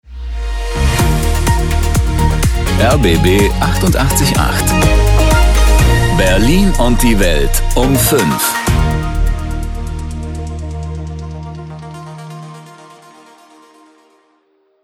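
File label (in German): Nachrichten mit SV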